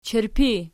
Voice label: "chirpi", archi